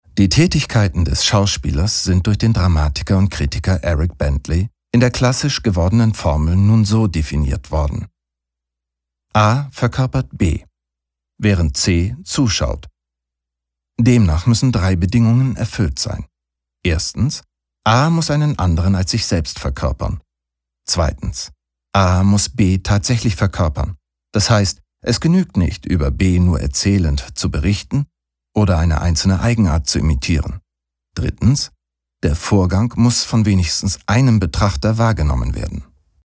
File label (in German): Neutral; Schauspieler